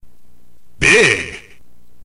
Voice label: narrator